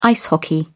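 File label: "ice hockey", British English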